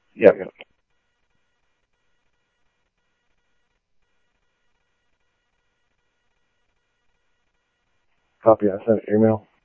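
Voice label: Mesa Police Department, scanner audio; MESA PD 3 Red Mt MesaPoliceDep; Mesa Simulcast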